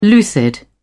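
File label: "lucid", British English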